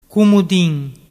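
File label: "cumudin", Ligurian dialect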